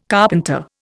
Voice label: "carpenter", Indian